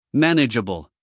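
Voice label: "manageable", American English, male; 1000 hours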